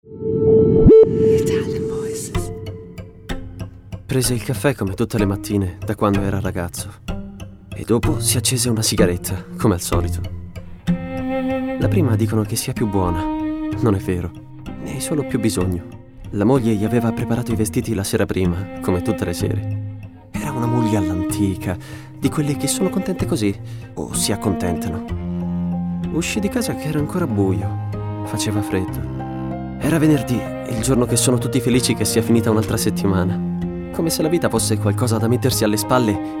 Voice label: ITALIAN VOICES, male voices; Naked Reel